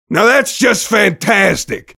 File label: mobster negative vocalization